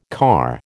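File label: "car", American English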